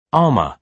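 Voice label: "armour", British English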